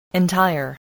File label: "entire", voice, english